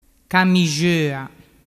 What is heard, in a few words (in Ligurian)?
"camixoa" voce genovese giachetta